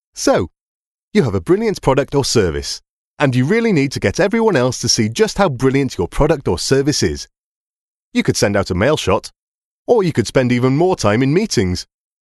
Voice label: soft sell